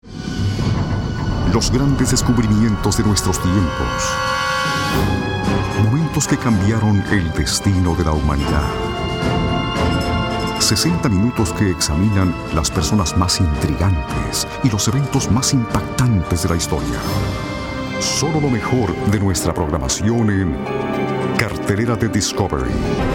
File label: spanish latin american commercial